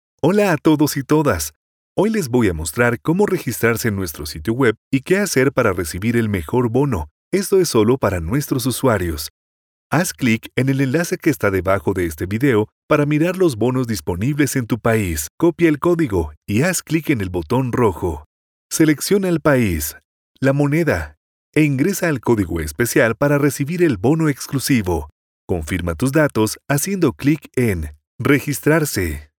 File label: spanish colombia male e learning